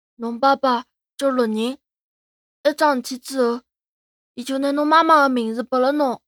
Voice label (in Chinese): 吕萍 男孩 对话